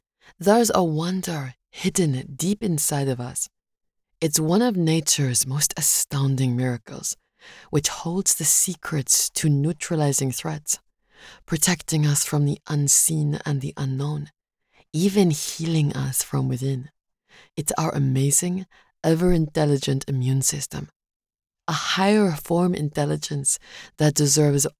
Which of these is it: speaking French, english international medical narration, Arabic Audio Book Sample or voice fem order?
english international medical narration